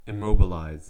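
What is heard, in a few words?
"immobilize", US English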